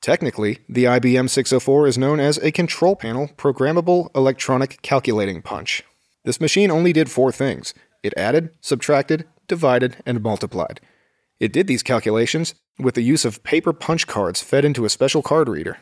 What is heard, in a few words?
PROCESSED VOICE SOLO